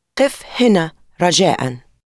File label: please stop here arabic